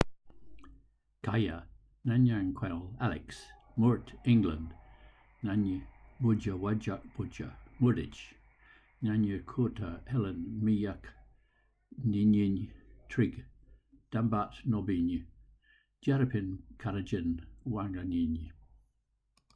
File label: Noongar greeting